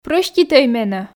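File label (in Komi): прӧститӧй менӧ, Komi, female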